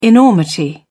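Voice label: "enormity", British English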